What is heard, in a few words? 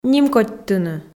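"нимкодьтыны", Komi, female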